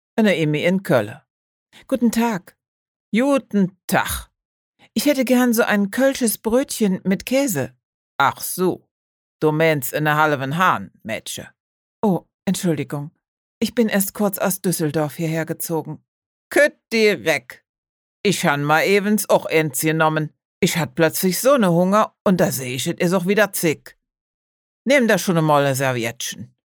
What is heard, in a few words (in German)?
Stimmprobe Koelsch